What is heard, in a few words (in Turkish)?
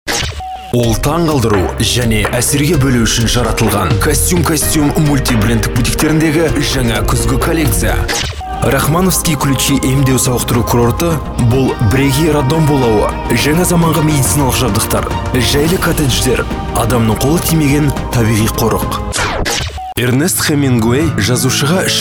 Kazakca Erkek